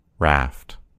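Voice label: "raft", American English; gcompris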